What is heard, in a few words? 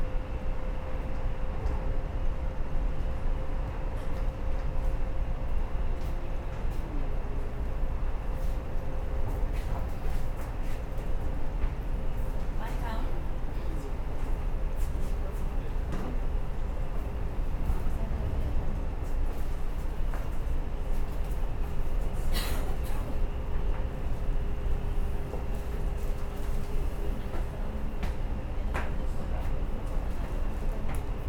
Newspaper Spanish